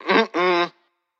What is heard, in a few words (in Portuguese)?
Negaçao intensa